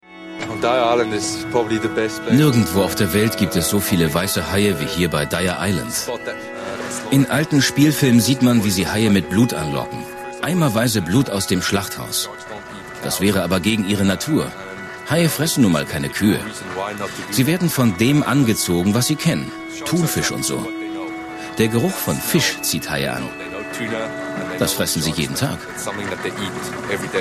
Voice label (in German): dokumentation; MareTV; NDR